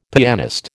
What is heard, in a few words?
"pianist", American